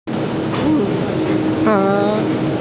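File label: "chu2fang2", Mandarin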